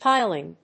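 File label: "piling", English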